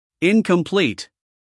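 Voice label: "incomplete", American English, male; 1000 hours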